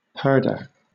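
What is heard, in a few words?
"purdah", British English; pronunciations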